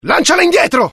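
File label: fear grenade, IT; mohaa; dialogue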